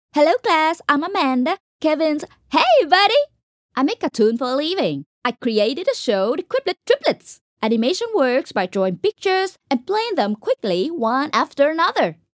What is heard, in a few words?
Animated character enthusiastic Mom